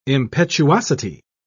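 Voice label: "impetuosity", English, British English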